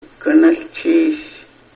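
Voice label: "thanks", Luganda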